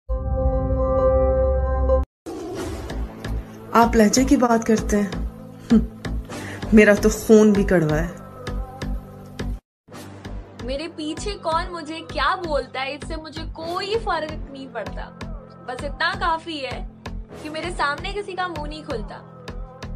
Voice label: Attitude Dialogue

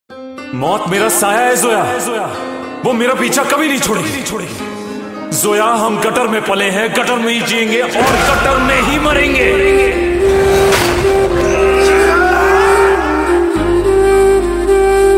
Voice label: Dialogue Ringtone

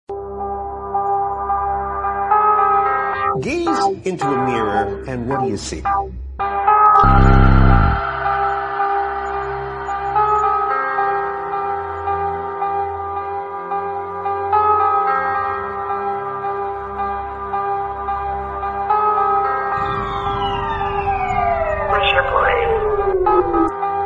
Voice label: Boys Attitude Angry Ringtone

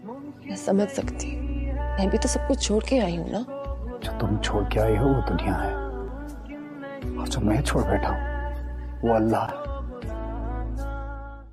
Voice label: Sad Dialogue Ringtone; ringtones

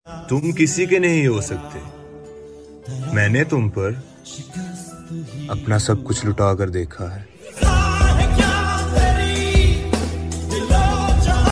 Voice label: Heart Broken Shayari Ringtone; Tum Kisi Ke Nahi Ho Sakte

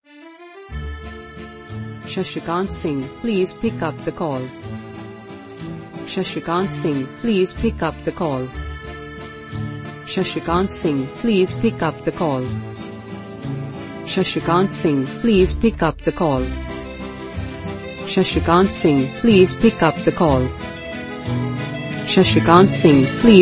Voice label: Hindi Name Ringtone

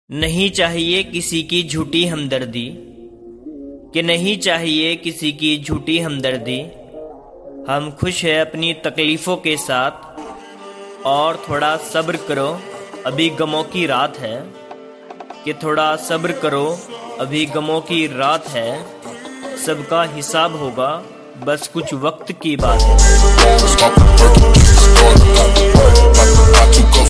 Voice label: Bad Boys Attitude Shayari Ringtone

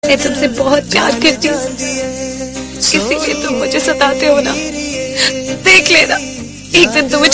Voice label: Song Dialogue Ringtone

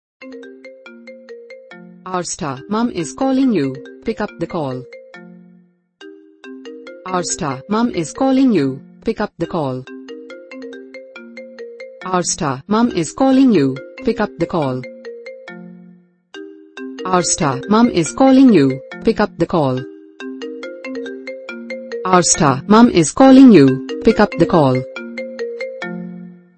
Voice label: Mom Is Calling You Pick Up The Call Name Ringtone

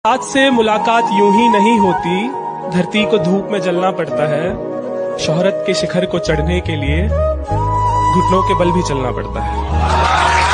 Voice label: Shayari Ringtone

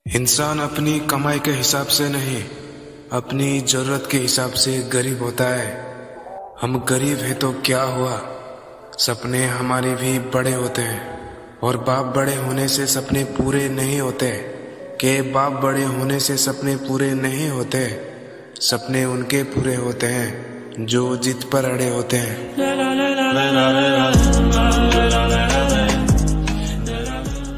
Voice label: Attitude Shayari

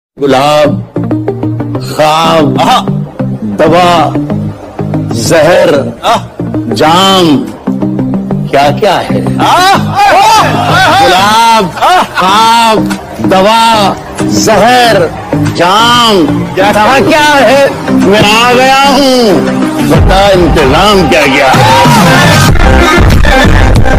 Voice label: Attitude Shayari Ringtone

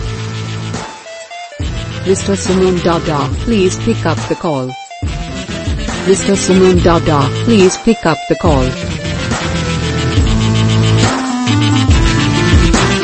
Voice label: Hindi Name Ringtone

